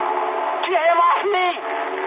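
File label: aircraft damaged mood high, speech English; War Thunder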